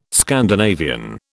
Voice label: "Scandinavian", British